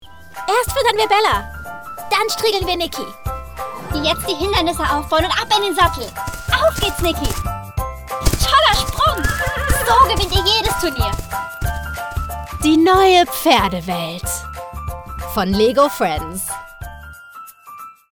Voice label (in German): DE, voiceover; Lego